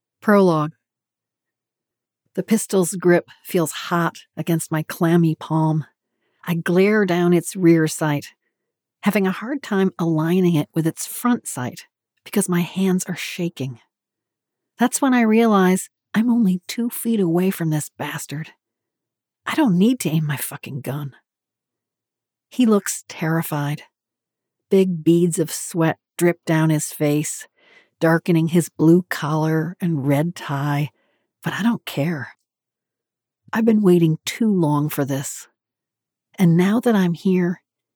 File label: Fiction Sci-Fi Audiobook